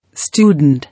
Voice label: "Student", English

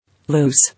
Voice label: "Loose", English

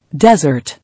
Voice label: "Desert", English

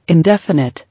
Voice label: "Indefinite", English

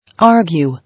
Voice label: "Argue", English

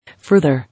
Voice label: "Further", English

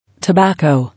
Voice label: "Tobacco", English

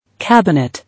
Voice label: "Cabinet", English

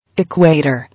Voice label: "Equator", English

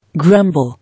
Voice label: "Grumble", English

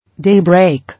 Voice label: "Daybreak", English

